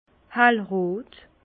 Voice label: "hellrot", Bas Rhin; vin; rouge pâle

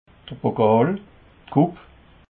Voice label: "pokal", alsace

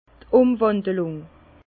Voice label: "umwandelung", Bas Rhin; vin; mutation

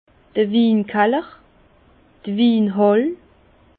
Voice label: "winkaller", Bas Rhin; vin; cellier

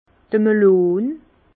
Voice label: "melon", Bas Rhin

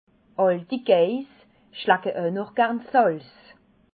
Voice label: "geiss", alsace

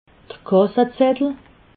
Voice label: "kassezettel", alsace

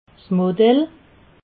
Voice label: "modell", alsace

